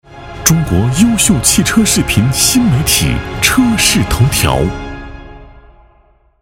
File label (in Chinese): 男 广告; 车视头条